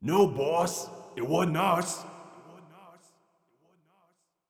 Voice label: thug voice; ocean-commotion